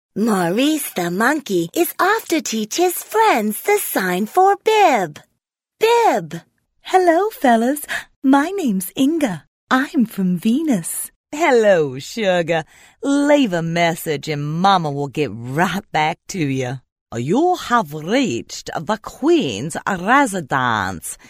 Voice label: character voices